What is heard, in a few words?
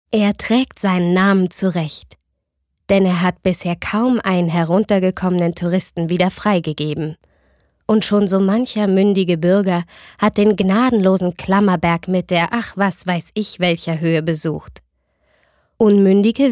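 commentary, neutral; Kommentar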